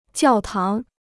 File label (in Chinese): "教堂", Chinese